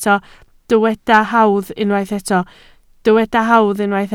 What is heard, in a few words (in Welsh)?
"hawdd", Welsh